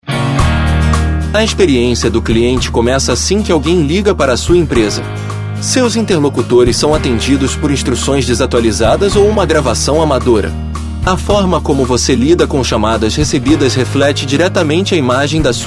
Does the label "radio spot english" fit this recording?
No